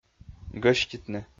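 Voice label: "гӧститны", Komi-Zyrian, male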